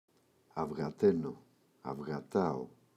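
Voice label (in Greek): "αυγαταίνω-αυγατάω", Greek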